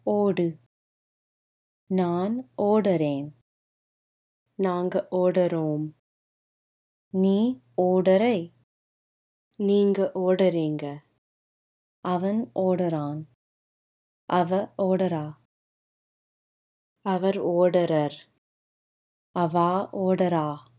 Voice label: Run, Tamil informal audio lessons